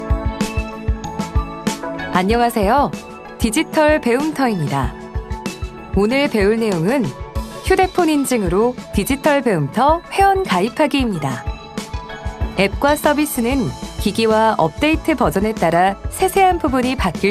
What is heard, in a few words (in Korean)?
교육용 깔끔한 기본톤